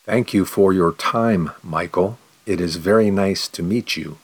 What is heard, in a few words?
response slow